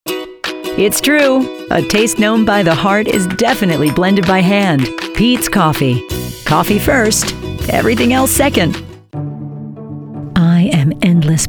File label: Commercial